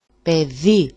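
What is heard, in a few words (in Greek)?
"pedi", Greek; παιδί; = child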